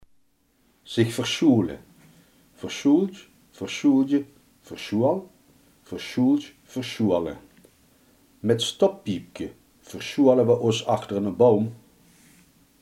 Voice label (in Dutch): "verschuilen zich", limburgse